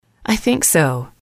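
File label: "I think so", English, female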